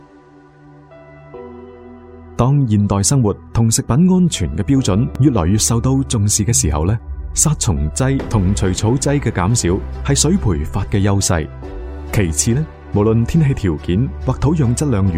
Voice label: 粤男,科普,水耕; 香港水耕

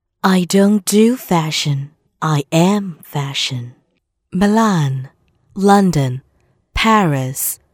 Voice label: 女 英文 广告 Fashion

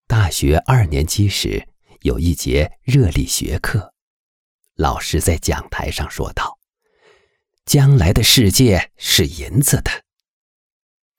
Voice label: 男; 白银时代

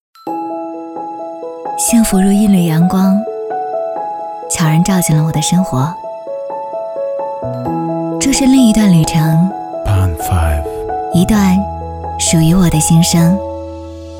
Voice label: 女 走心旁白; 呵护新生

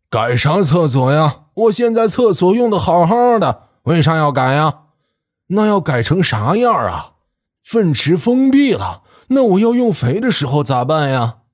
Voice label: 大爷角色 宣传片解说词; 厕所革命; 李大爷角色

